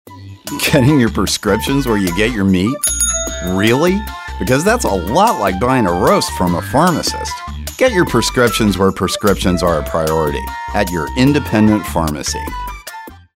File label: guy next door